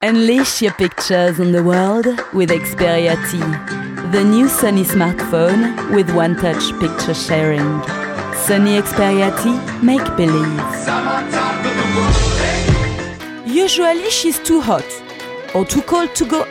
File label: French English Accented